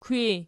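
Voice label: "kwi" nominative, Archi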